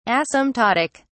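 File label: "asymptotic", British English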